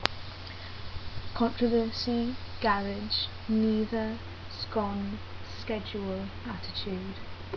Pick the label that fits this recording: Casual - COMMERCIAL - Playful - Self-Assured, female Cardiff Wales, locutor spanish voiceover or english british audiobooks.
female Cardiff Wales